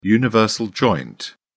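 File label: "universal joint", English, British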